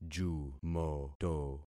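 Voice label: "jumoto", concatenated syllables; Affixing experiment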